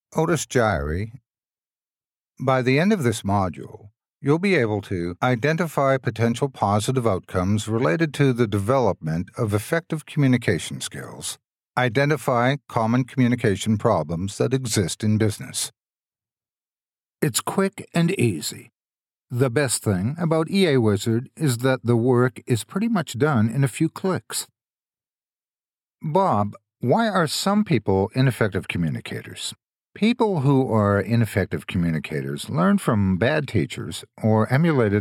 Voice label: elearning